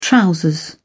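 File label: "trousers", British English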